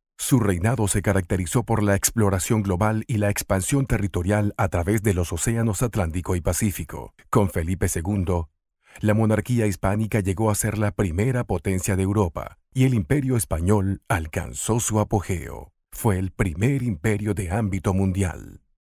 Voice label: locutor venezuela venezuelan voiceover; locutor venezolano